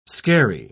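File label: "scarey", British English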